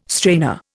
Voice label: "strainer", Indian